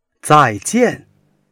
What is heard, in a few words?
"zai4jian1", chinois